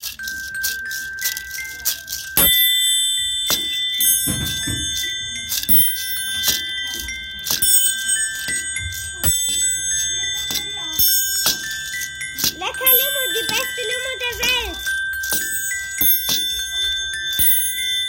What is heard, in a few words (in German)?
Radio Werbung